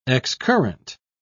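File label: "excurrent", British English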